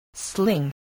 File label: "sling", voice spelling